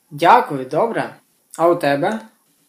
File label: dialogue, Ukrainian